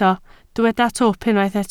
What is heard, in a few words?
"twp", Welsh